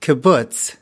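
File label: "kibbutz", American English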